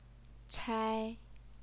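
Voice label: "chai", Mandarin Chinese, first tone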